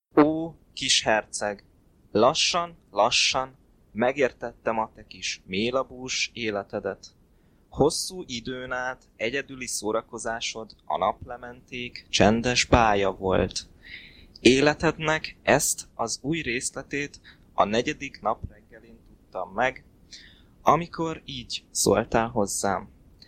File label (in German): Ungarisch, Budapest